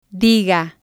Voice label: "diga" Spanish